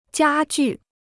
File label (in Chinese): "家具", Chinese